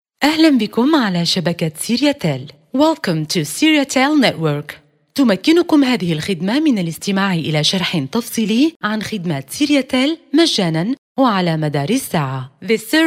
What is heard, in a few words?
Arabic